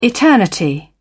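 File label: "eternity", British English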